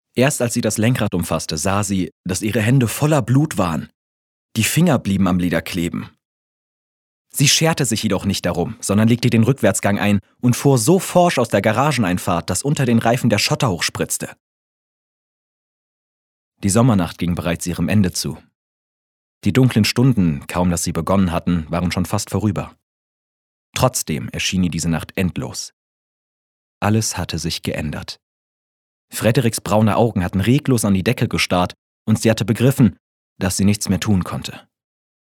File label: Audio Drama (Hörspiel), German; Leuchtturmwärter